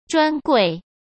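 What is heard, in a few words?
"专柜", Mandarin Chinese; chinese-flashcards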